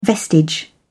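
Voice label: "vestige", British English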